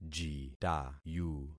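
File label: "jitayu", concatenated syllables; Affixing experiment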